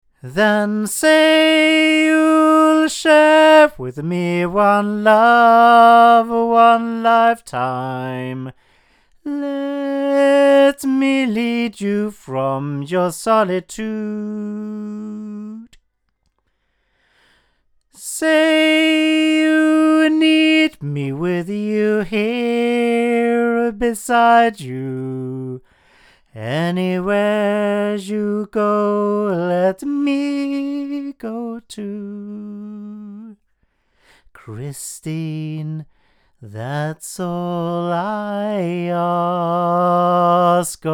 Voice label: CK-1 hypercardioid male; Avant